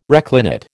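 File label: "reclinate", American English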